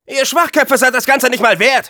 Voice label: taunts, de; Scout